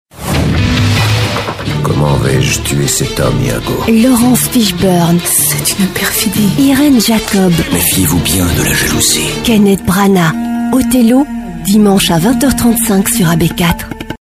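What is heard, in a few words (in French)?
Belgian French, female, commercial; voice demos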